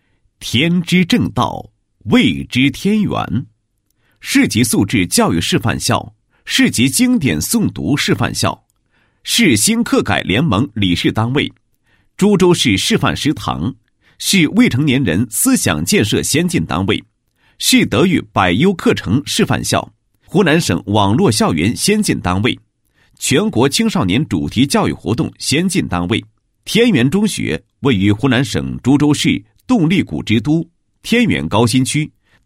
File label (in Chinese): 男-学校-宣传简介; 天元中学宣传简介